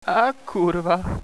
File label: oh no sad, radio bot